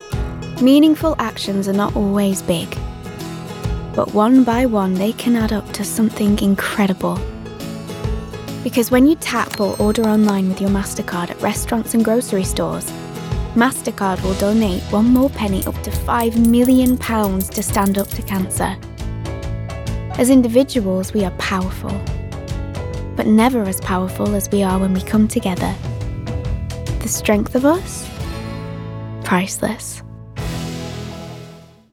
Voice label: Manchester, Commercial, Bright, Friendly, Informative; MasterCard